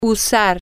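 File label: to use, spanish, female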